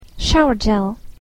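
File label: "shower gel", English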